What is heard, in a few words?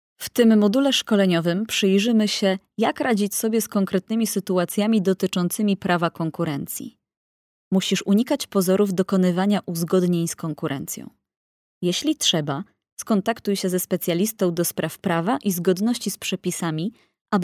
female polish voice over narrative